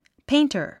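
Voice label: "painter", English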